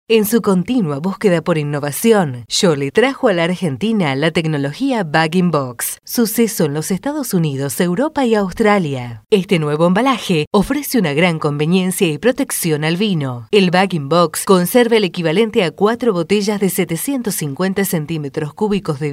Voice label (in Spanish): locutora argentina argentinian voiceover